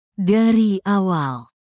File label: indonesian phrases for talking about love and relationships